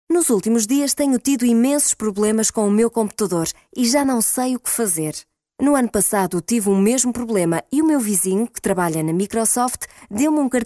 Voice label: portuguese; microsoft